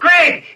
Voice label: "hey", Voice